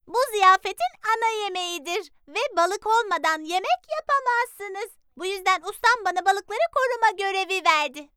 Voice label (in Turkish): Kız Çocuk